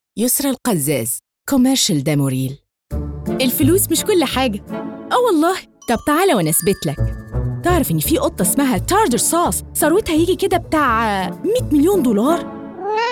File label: Commercial Egyptian Arabic